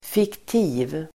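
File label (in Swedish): "fiktiv", Swedish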